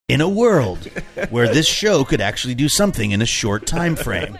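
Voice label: saying in a world where this show could actually do something movie trailer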